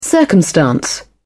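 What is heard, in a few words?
"circumstance", British English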